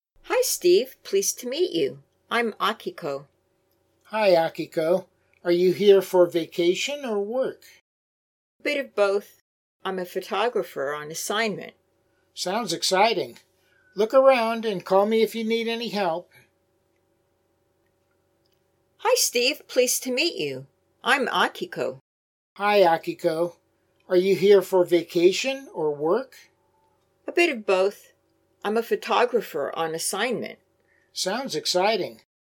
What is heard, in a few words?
Natural Dialog; Lesson 3